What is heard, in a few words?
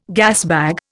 "gasbag", Indian